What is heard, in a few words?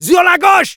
Medic head left, fr; "Zur la gauche!"